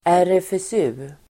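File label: "RFSU", Swedish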